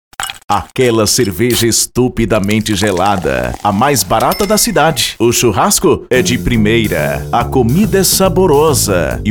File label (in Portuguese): spot churrascaria; o carreteiro